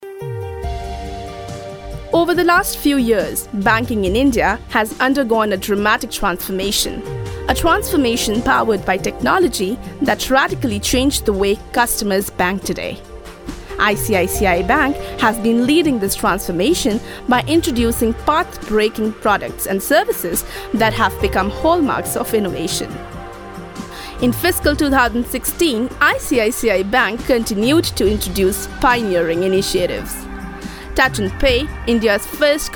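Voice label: corporate english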